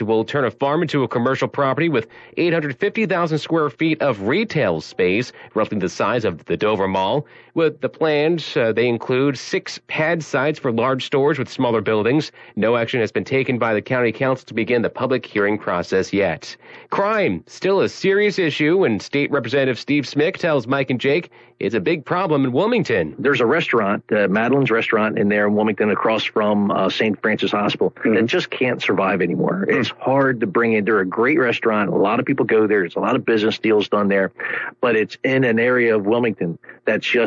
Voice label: demo news